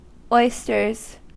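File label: "oysters", American English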